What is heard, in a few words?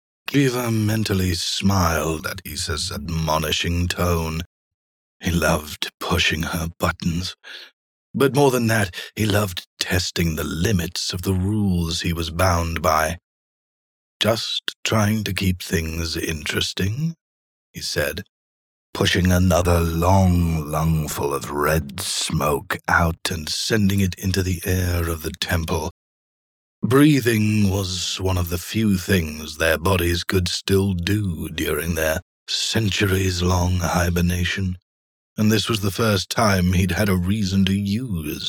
British Accent